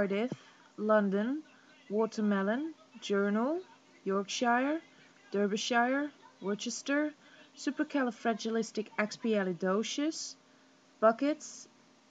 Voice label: accent

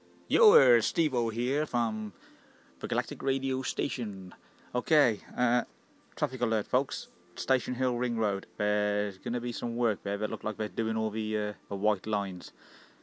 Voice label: traffic alert; station hill

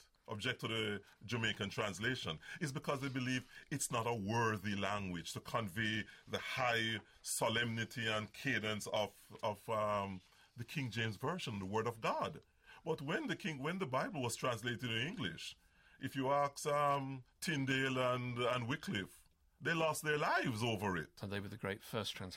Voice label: the lord's prayer read in patois

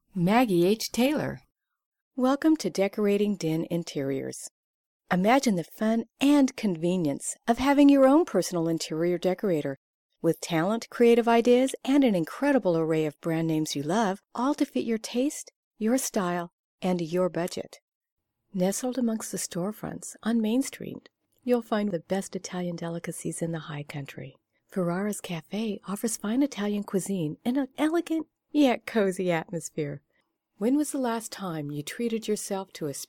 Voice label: commercial clips

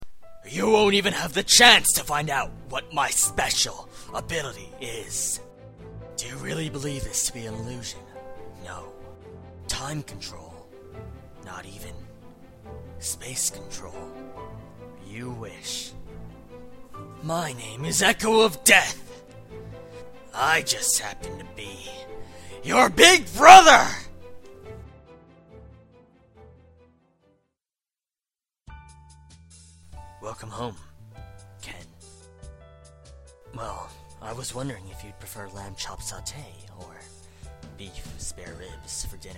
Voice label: voice over

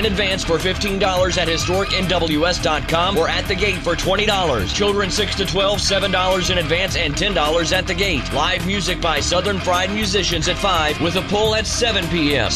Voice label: radio commercial truck and tractor

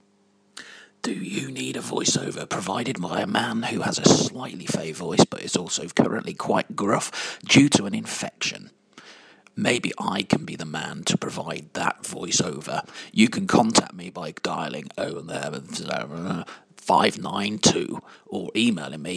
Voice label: voiceover

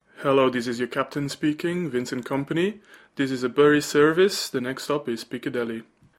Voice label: tram announcement; man city's vincent kompany; manchester metrolink

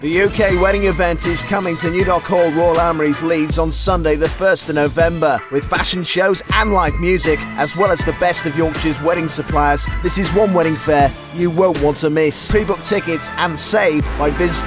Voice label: advert; heart yorkshire; the uk wedding event

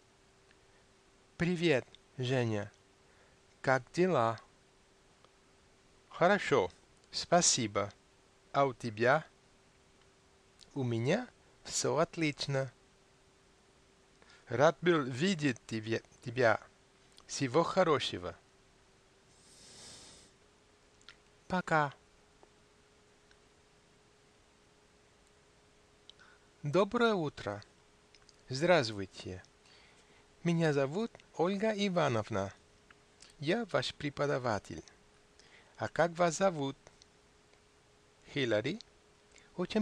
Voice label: russian dialog

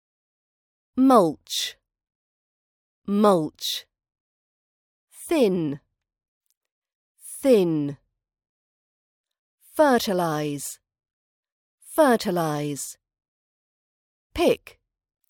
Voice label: gardening vocab British accent practice audio

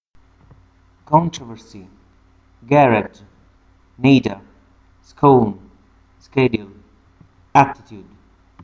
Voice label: Naples Italy male

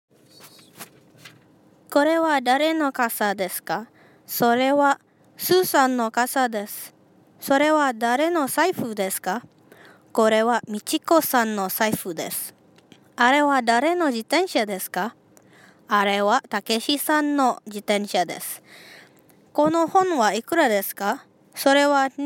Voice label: Japanese speaking test

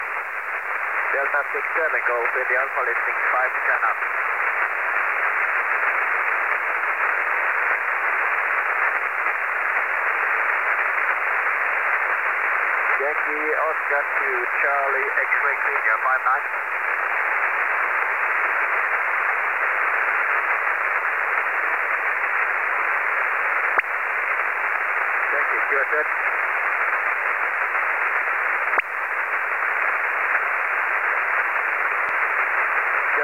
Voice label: 21 MHz SSB; comoros isl

